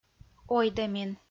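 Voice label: "ойдӧмин", Komi, female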